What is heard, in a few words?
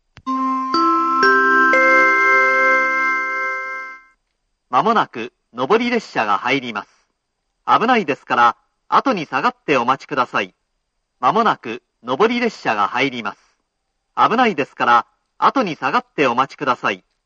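platform 3 approaching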